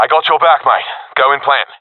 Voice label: friend plant bomb, professional epic; Counter Strike Model Pack; CS:GO

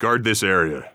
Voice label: radio bot hold, heavy; vo; Counter Strike Model Pack; CS:GO; phoenix coop

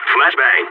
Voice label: flashbang, balkan; vo

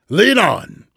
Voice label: lead on, vortigaunt; Half Life 2; Episode 2

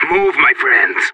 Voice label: radio lets go; Counter Strike; CS:GO; separatist

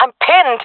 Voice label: pinned, jungle fem; player vo jungle fem; Counter Strike Model Pack; CS:GO

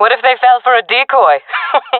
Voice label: request decoy, jungle fem; player vo jungle fem; Counter Strike Model Pack; CS:GO

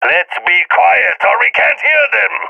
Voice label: request quiet, balkan; vo; balkan epic

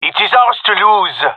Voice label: round winning, gendermerie male

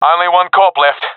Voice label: enemies left one, professional; vo; Counter Strike Model Pack; CS:GO

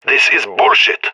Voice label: scared; agents; leet epic

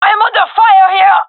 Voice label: takingfire, gendermerie fem; vo

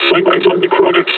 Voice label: announce enemy zombie; combine charger; Half Life Alyx

